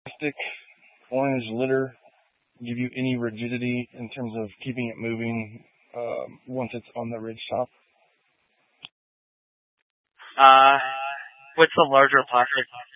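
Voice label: Incident Tac 3, Scanner audio recording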